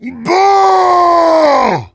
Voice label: jeers; Heavy

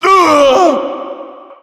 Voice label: pain

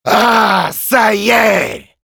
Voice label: Demoman cheers, fr

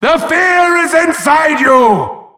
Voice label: wheel scared

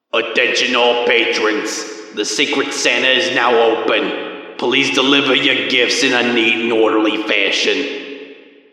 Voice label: Intercom cap open

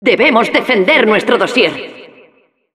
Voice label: Announcer defend intel, es

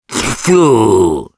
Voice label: Sniper jeers, ru

